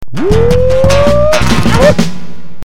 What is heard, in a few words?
Telephone Message